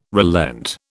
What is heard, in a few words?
"relent", British